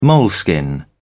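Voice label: "moleskin", British English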